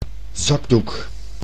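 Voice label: "zakdoek", Dutch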